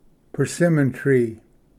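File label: "persimmon tree", English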